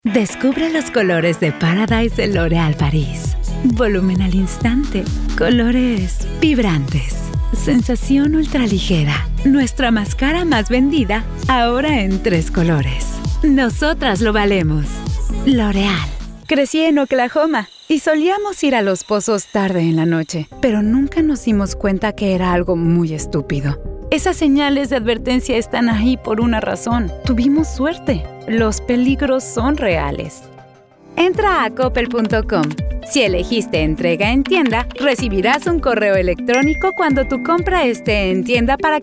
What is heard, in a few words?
Spanish